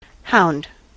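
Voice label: "hound", US English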